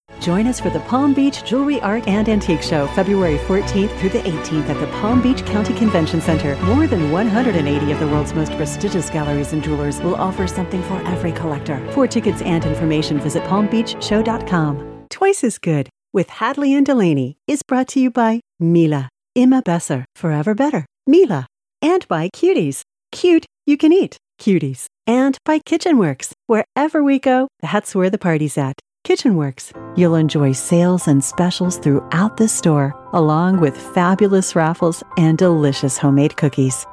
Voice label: COMMERCIALS CLASSY Friendly ENGAGING Compelling